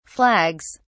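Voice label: "flags", British English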